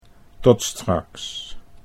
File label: "tot straks", Dutch